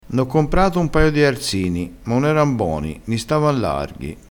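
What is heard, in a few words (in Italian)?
"bono", vocabolario dialettale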